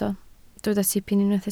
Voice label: "tipyn", Welsh